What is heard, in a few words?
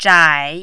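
"zhai3", mandarin, dipping tone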